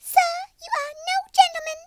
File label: bummer, Southern Belle; speech